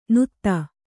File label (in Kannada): "ನುತ್ತ", Kannada